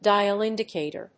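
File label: "Dial indicator", English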